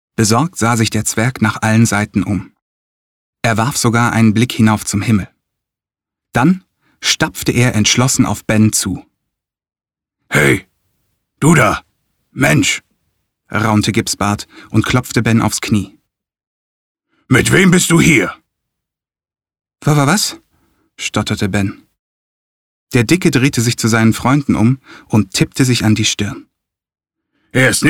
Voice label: Kinderbuch, stimmen maennlich

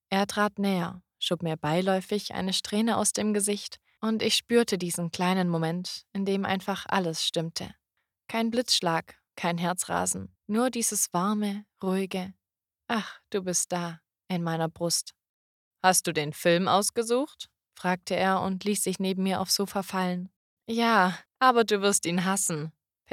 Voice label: Hoerprobe Romantik

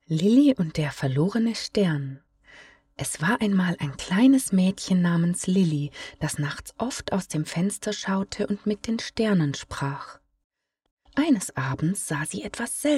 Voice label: Kindergeschichte, sprecherinnen; Stimmen Weiblich

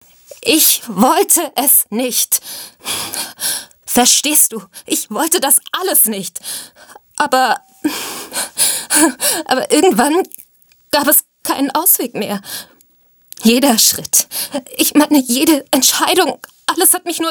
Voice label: Dramatisch, Hörbücher; Stimmen Weiblich

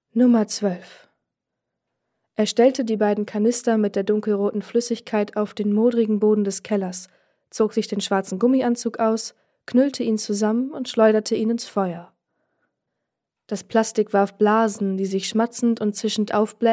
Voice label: Demo Thriller; Stimmen Weiblich

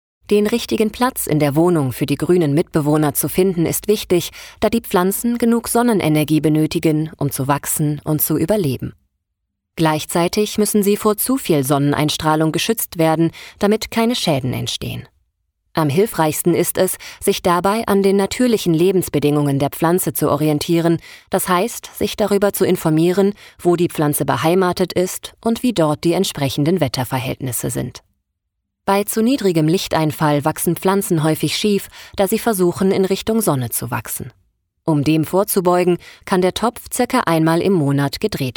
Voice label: Hoerbuch Ratgeber; Stimmen Weiblich

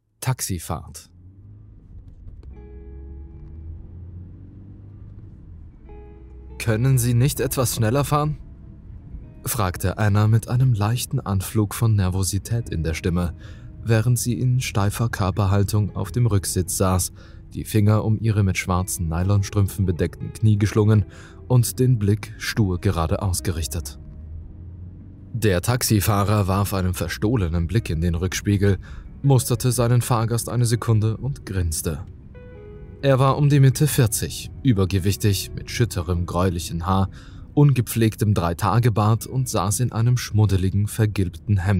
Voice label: Erzaehler; Stimmen Weiblich

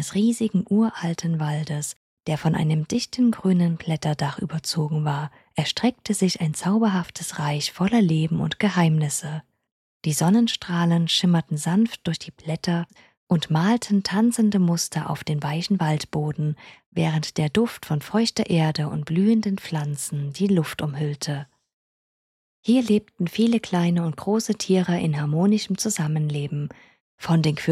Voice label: Hoerprobe Kapitel

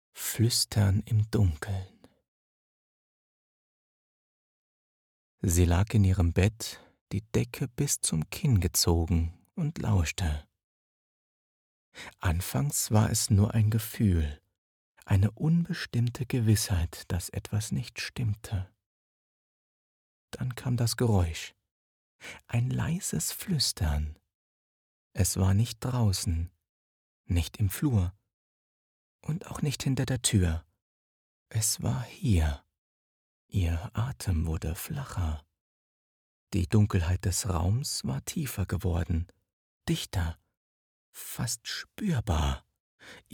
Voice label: Hoerprobe Fluestern

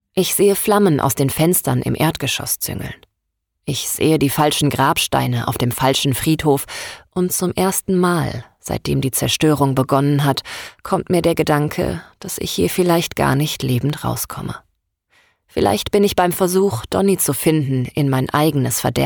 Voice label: Hoerbuch Thriller; Stimmen Weiblich

